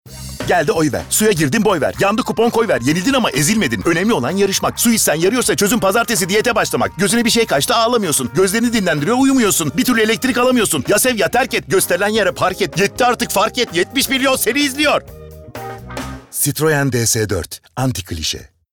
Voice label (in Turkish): Neseli